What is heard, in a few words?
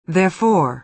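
"therefore", American English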